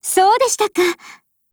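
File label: Accept, Japanese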